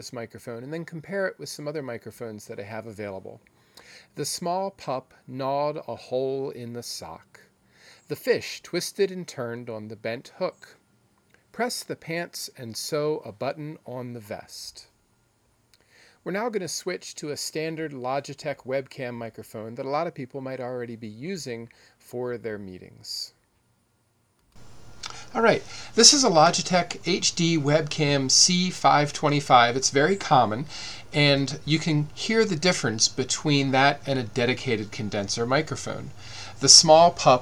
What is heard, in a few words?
mic test